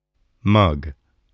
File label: "mug", voice, spelling